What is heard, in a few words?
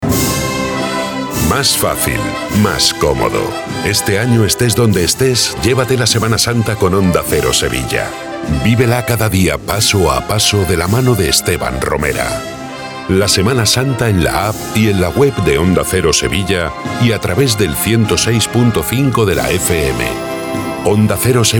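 spanish radio imaging, Spain; voice actor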